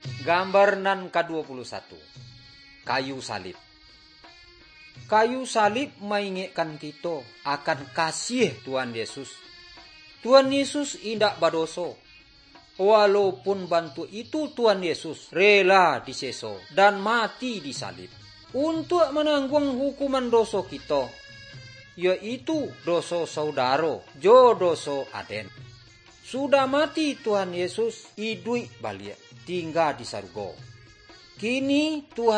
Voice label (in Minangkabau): good news minangkabau; bible